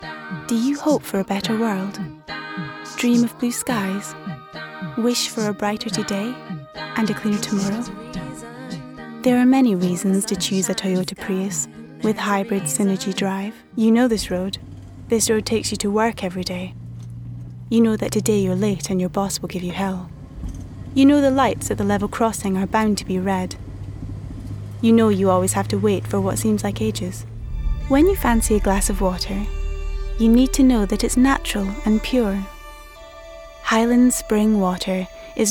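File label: British Scottish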